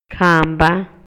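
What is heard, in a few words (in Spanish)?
Maya, Yucatán, male; RELACIONES ESPACIALES; INALI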